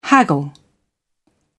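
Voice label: "haggle", British English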